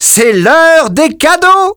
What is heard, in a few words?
French - dialogue, reveal